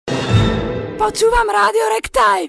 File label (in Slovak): jingle, zuza pocuvam